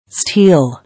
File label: "Steal", English